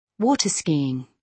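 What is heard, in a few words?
"water skiing", British English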